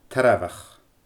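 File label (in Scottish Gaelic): "tairbheach", Scottish Gaelic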